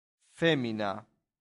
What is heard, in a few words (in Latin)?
"femina", Latin; santillana